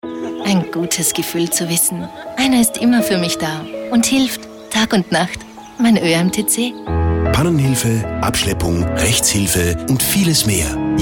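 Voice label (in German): Austrian, Werbung Sanft; Fremdtake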